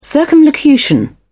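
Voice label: "circumlocution", British English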